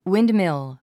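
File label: "windmill", English word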